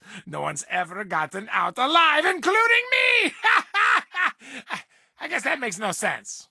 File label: English Voice